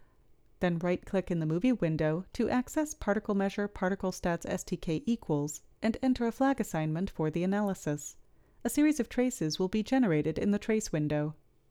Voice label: Voiceover